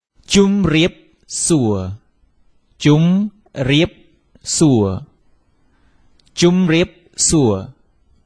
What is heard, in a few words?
Hello in Cambodia; Multiculturalism